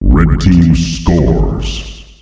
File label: Red Team Scores, announcer male; Unreal Tournament; ut2004